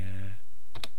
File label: "naa", East Cree syllabics